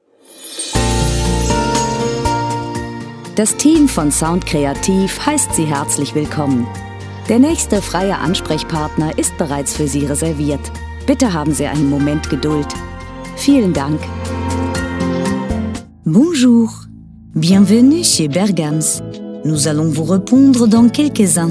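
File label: german interactive voice response; voice actor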